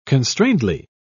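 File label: "constrainedly", English, British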